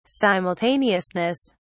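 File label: "simultaneousness", British English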